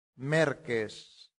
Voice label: "merces", latin; santillana